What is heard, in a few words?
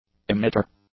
"emitter", English; nouns